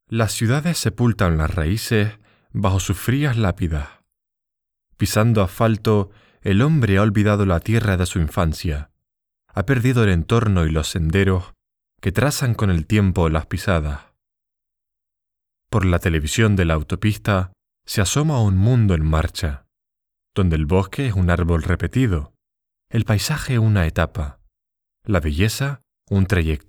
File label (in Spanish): Poema Canario; Pedro Lezcano